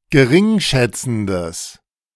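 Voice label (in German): "gering schätzendes", German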